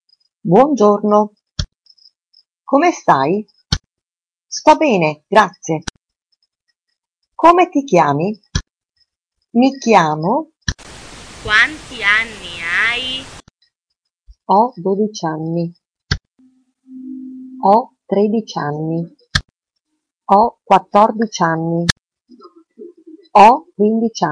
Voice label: Italian